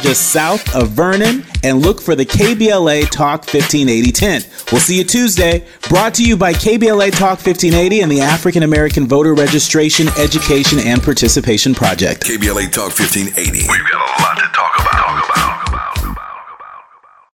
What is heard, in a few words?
PUNCH BALLOT PROMO